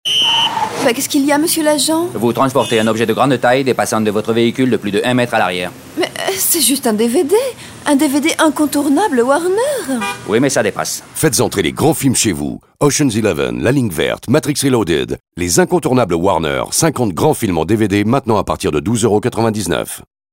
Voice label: avé l'accent; warner dvd

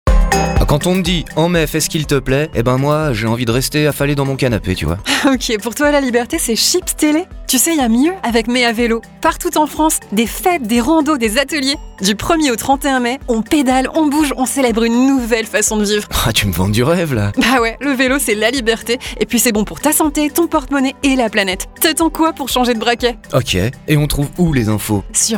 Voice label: pub comedie; MAI A VELO

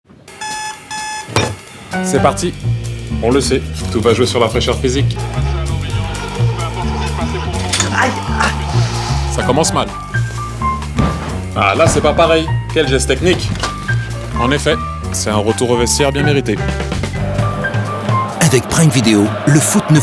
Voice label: pub spot TV; Prime Video; Ligue 1

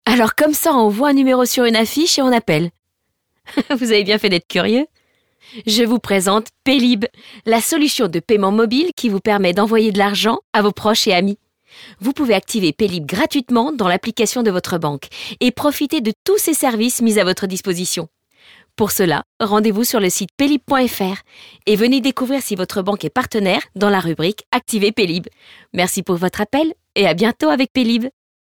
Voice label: Répondeur; PAYLIB

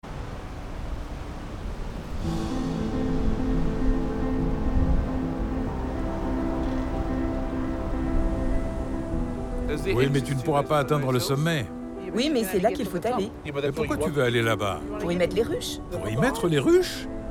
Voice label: voix off abeille, comedienne

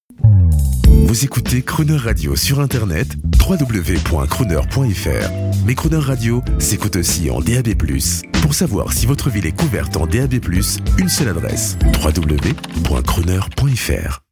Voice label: JINGLE

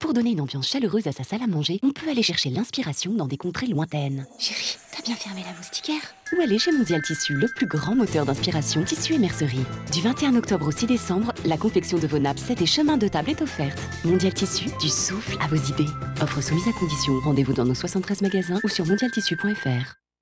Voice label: Spot Radio; Mondial Tissu

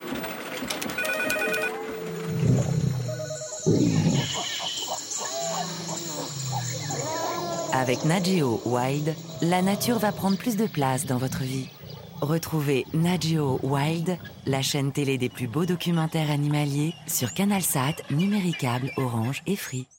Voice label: SPOT RADIO; DDB

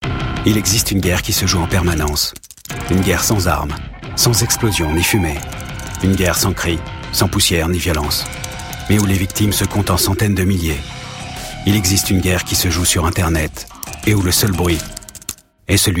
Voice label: Pub Série Droit Thriller Suspens; Arte; The Code

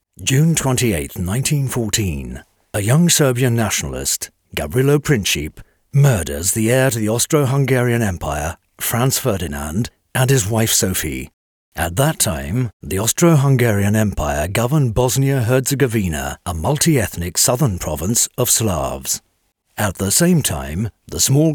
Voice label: Docu Origines Guerre Mondiale narration

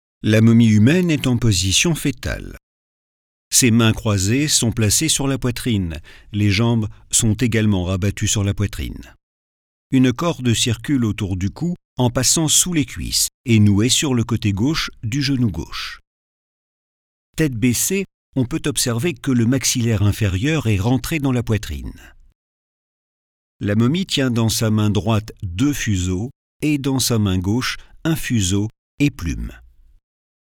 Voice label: audio guide

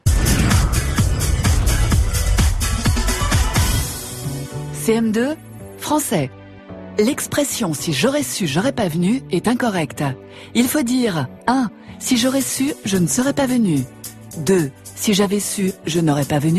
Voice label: Voix off Jeu TV

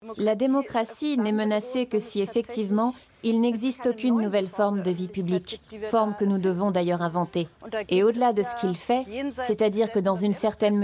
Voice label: voiceover VF; ARTE; Magculturel; corona

